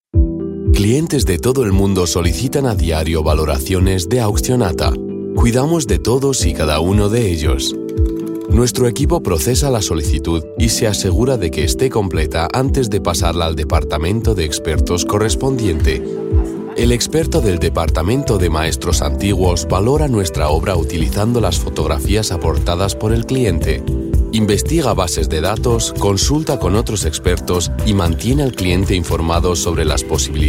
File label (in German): ESP Sanft; Fremdtake